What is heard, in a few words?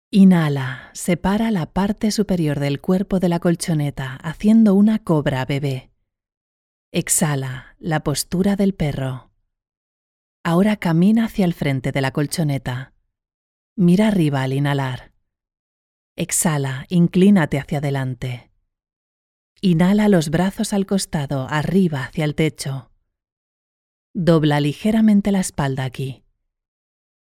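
Spanish narration e-learning calm instructional yoga teacher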